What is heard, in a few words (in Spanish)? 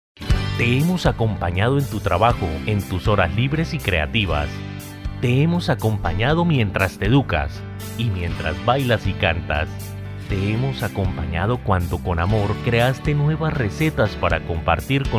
voz comercial